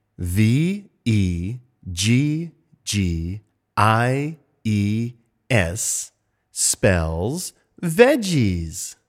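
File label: V E G G I E S spells veggies, pronunciation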